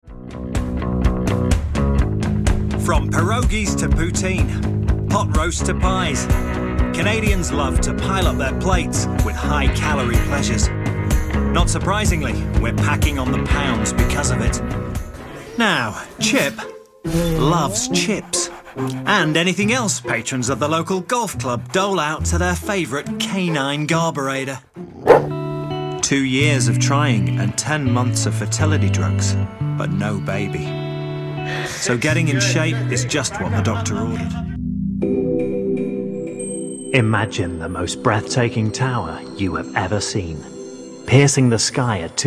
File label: narration